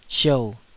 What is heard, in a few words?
"siou3", Taiwanese syllable